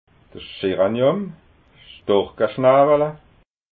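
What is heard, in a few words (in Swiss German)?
"scheranium", Alsatian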